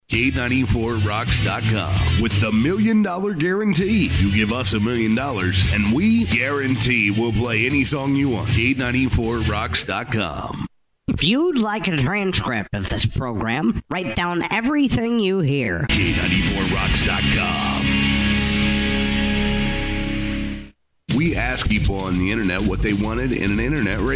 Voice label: sweeper